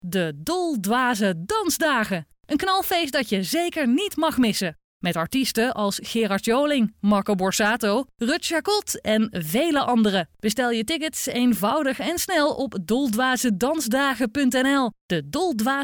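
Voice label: accent female BRABANTS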